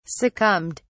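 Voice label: "succumbed", British English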